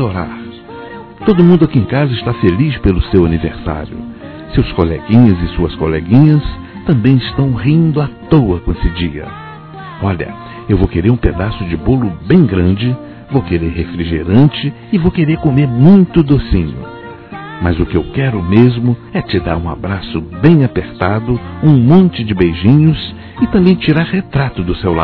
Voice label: Irmão (a) Criança Masculino; Telemensagens 2017; Aniversários; Aniversário Infantil

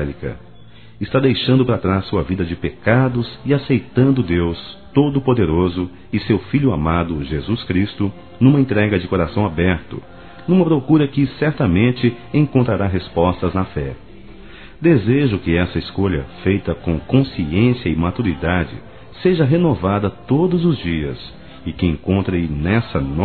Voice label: PARAB PELO BATISMO (M), Telemensagens; ANIV. Evangelico

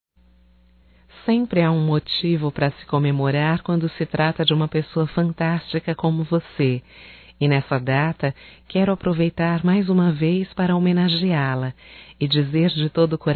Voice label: Dia Secretária Feminino, Só Voz; Telemensagens; DATAS COMEMORATIVAS

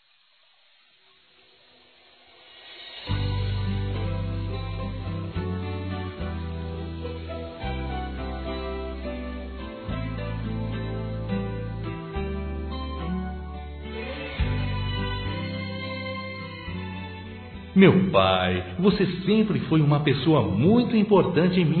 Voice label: Convite Ao Pai Masculino; Telemensagens; Convites e Agradecimentos; Telemensagens 2017

